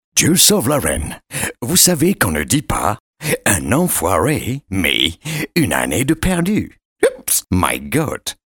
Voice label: anglais

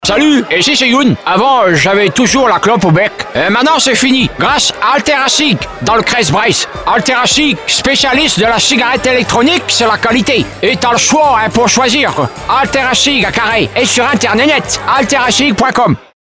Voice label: breton

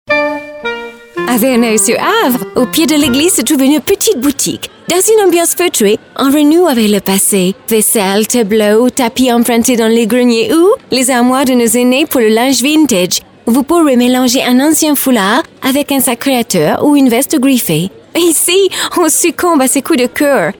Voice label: anglaise

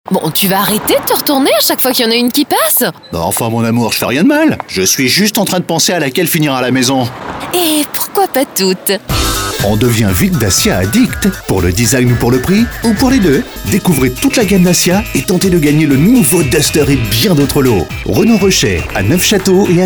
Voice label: garage, Publicités; radio

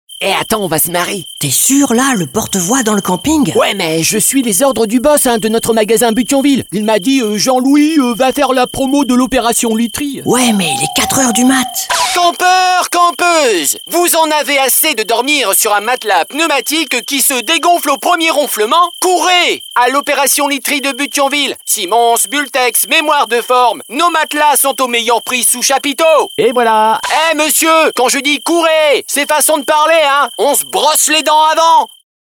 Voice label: radio; BUT THIONVILLE literie

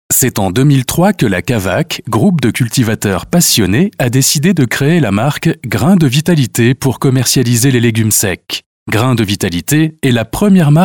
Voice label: Commentaire

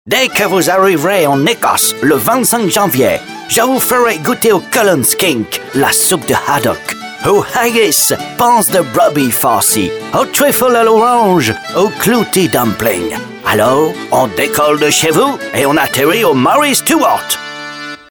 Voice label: anglais

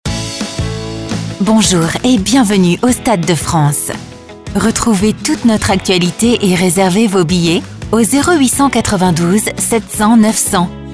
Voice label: REPONDEUR; Stade de France; Studio Mix

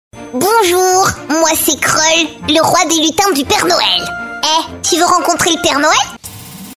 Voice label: lutin femme

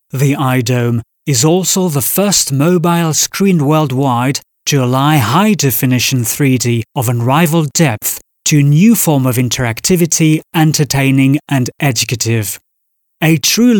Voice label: Anglais

